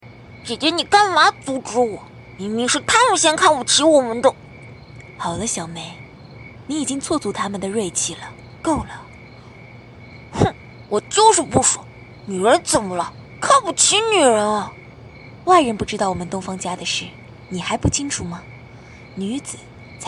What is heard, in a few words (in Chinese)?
配音角色姐姐妹妹